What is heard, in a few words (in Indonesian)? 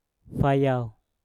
"fayau" berbisik, Mpur